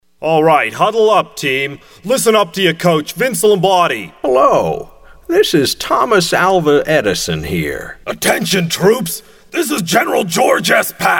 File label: IVR Snipit